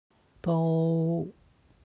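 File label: "dou", Chinese; 都; 皆; HSK Level One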